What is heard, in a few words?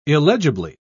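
"illegibly", British English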